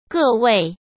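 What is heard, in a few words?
"各位", Mandarin Chinese; hsk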